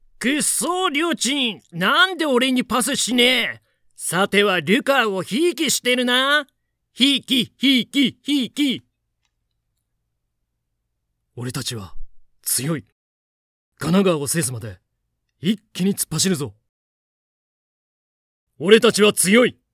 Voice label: Character voices